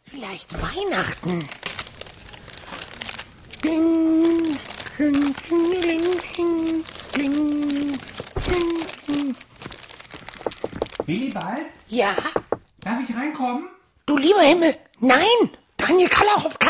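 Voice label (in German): Hörspiel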